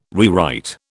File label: "rewrite", British